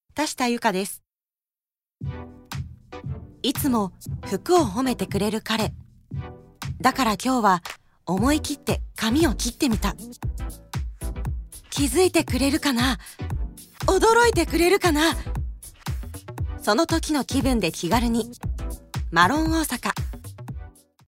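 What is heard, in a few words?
commercial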